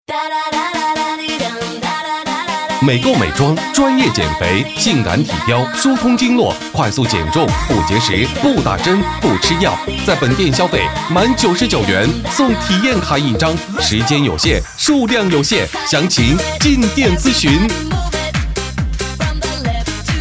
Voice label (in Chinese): 男 促销 美妆; 美够美妆